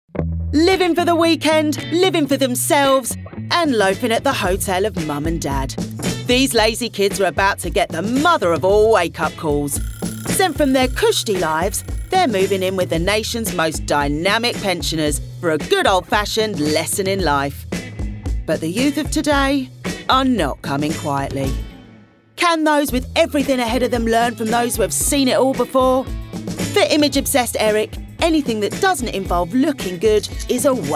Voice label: DOCUMENTARY; Old School For Lazy Kids